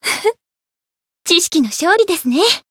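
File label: Voice win, Japanese; veina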